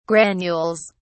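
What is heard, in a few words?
"granules", British English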